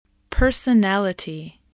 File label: "personality", voice, English